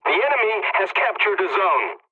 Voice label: pilot capture zone enemy, english; War Thunder